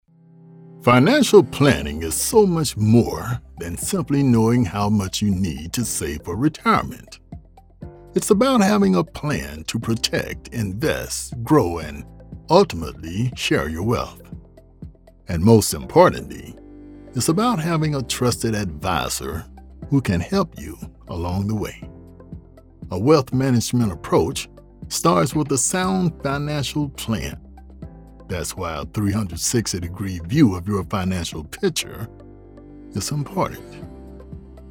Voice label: BANKING AND INVESTMENT COMMERCIAL